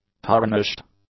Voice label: "tarnished", English; adjectives